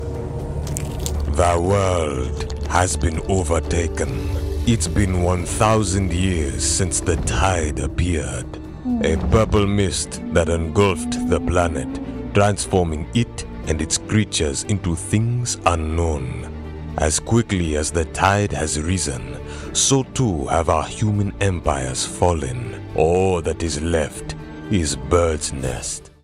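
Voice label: Announcing, Australian English; Gone to Gaia!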